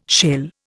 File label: "shell", Indian